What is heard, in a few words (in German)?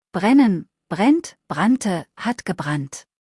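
"brennen" German word; verben kurzliste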